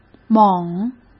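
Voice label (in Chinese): "猛", Mandarin Chinese; hsk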